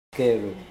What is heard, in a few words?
"kehrup", Mokilese